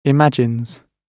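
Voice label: "imagines", British English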